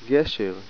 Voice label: "bridge", Hebrew